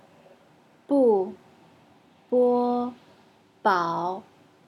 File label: chinese learning b pronounciation